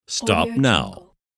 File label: announcer saying stop now